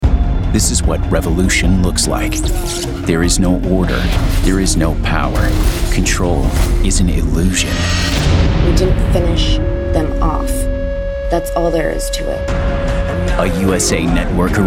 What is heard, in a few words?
PROMO